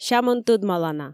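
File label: "сямӧн тӧдмалана", Komi, female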